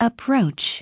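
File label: "approach", English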